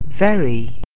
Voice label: "very", English